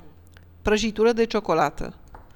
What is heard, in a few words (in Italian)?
menu, rumeno; frasi utili